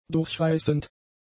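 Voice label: "durchreißend", german; adjectives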